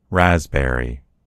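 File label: "raspberry", American English; gcompris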